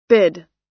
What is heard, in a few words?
"bid" US